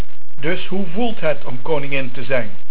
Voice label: "Voelt", Dutch

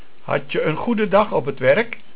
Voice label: "Werk" Dutch

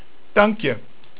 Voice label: "Dank", Dutch

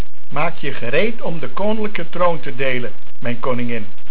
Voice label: "Gereed", Dutch

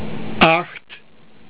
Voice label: "acht" Dutch

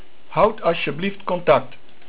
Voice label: "Contact", Dutch

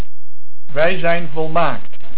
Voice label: "Volmaakt", Dutch

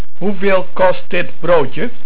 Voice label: "Broodje", Dutch

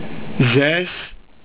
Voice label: "zes" Dutch

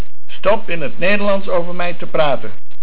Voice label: "Stop", Dutch

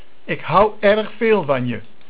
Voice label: "Hou", Dutch

